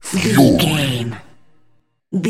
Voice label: announcer voice classic fps style "fury"

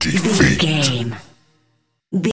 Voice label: announcer voice classic fps style "defeat"

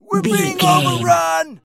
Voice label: voice male soldier we're being overrun

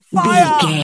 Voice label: voice male soldier fire

